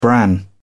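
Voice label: "bran", British English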